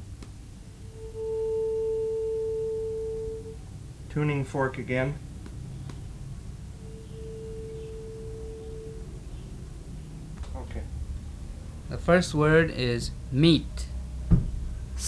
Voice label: Rawang tones

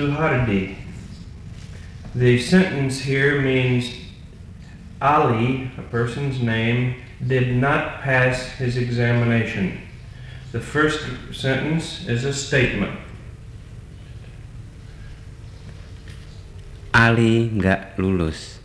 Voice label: Javanese Intonation

